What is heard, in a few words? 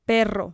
"perro", Spanish